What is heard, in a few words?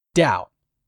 "DOUBT", english; 3000 words